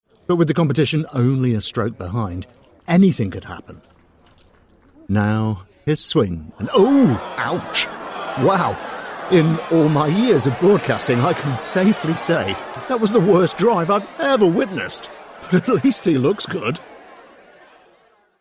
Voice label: voiceover comedy golf advert

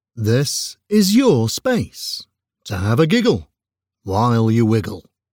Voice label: voiceover commercial short giggle

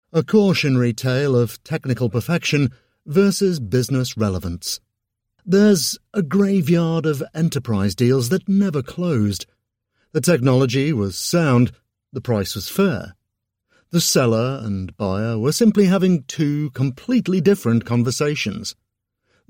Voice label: Business, audiobooks; British

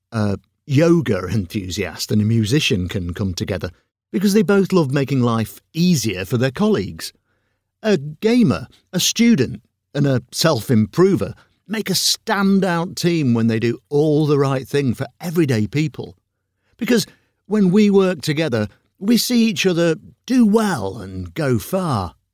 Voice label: character interviewee collaboration

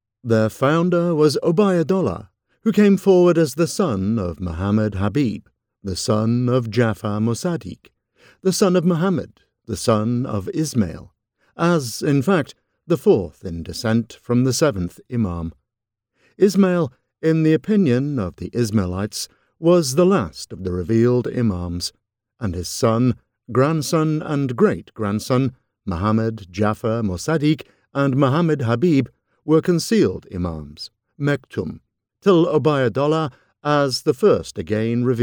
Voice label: voiceover audiobook history of the assasins

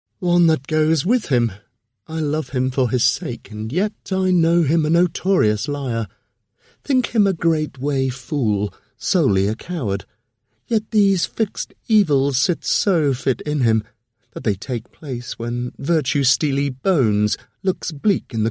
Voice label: voiceover shakespeare characters

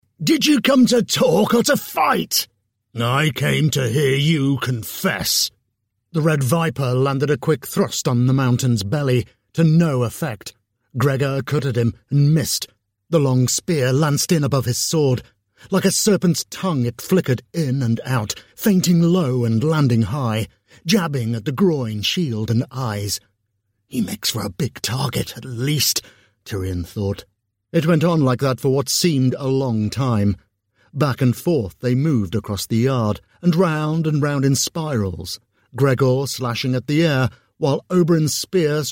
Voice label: audiobook narration

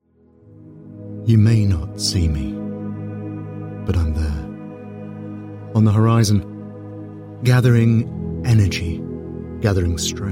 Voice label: voiceover deep intimate commercial

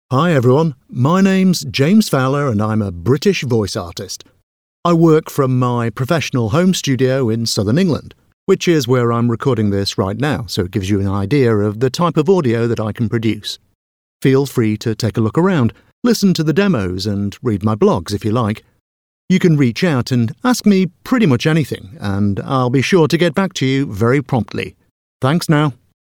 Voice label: voiceover studio tone

